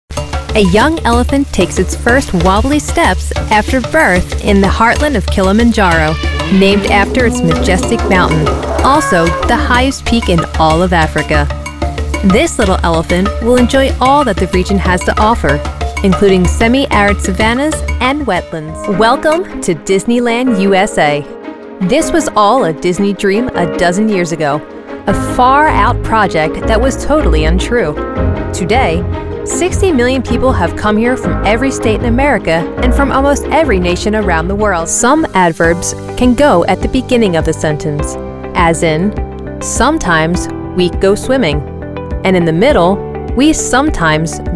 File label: NARRATION